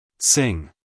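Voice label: "sing", American English male; everyone-can-use-english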